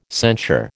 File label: "censure", American